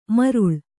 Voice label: "ಮಾರುಳಿ", Kannada